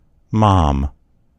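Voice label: "mom", American English; gcompris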